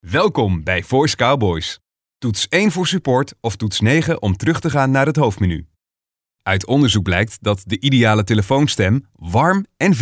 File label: Voicemail